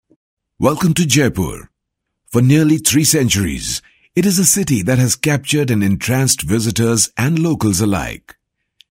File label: English, male voice